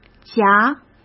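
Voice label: "夹" Mandarin Chinese; chinese-flashcards; hsk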